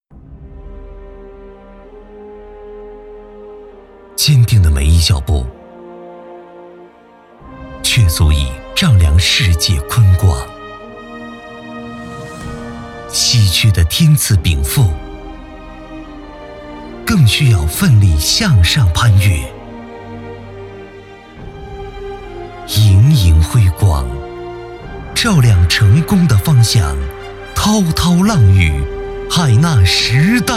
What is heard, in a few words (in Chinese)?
香烟宣传; 大成者·成功细支